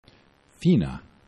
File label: "fina", esperanto